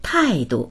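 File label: "tai4du", Mandarin Chinese; tàidu; 态度; 態度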